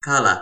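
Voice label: "kala", Toki Pona; linku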